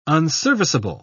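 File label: "unserviceable", British English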